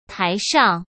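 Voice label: "台上", Mandarin Chinese; chinese-flashcards; hsk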